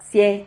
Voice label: "xie", Silabas

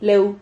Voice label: "leu", Silabas

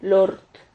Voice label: "lord", Silabas

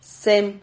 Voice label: "sem", silabas

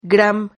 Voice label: "gram", Silabas

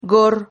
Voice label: "gor", Silabas

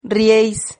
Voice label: "rrieis", Silabas

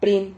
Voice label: "prin", Silabas

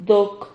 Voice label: "doc", Silabas

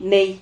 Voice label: "ney", Silabas

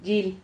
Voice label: "llil", Silabas

